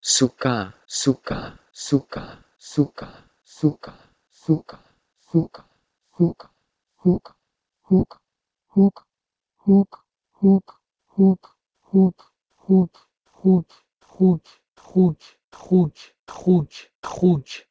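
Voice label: Proto-Indo-European suHka to Persian xuuC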